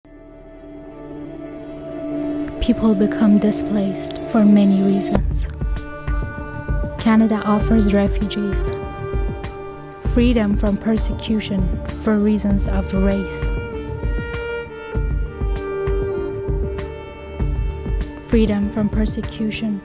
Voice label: narration